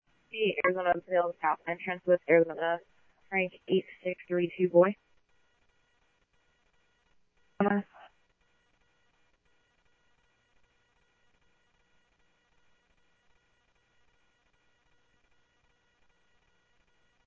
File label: Tempe Police, scanner audio; TEMPE PD A04